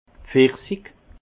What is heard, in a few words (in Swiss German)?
"pfirsich", alsace; vin; pêche